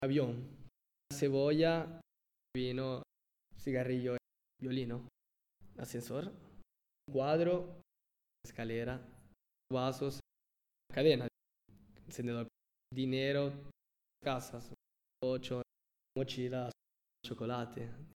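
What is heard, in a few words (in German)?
Zusammengefugte Worter Schnell Spanisch, male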